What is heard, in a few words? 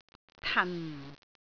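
"tan4", Cantonese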